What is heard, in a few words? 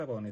Tajik